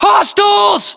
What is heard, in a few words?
threat infantry generic, US; localized english; battlechatter